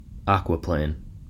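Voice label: "aquaplane", US English; pronunciations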